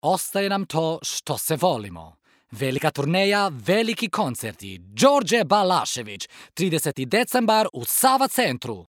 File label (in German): Kroatisch